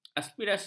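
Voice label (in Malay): "aspirasi", Malay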